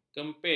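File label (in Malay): "kempit", Malay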